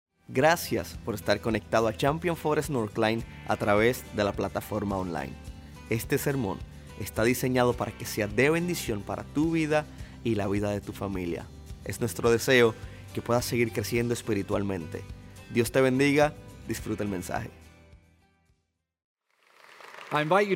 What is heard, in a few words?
Sermon Spanish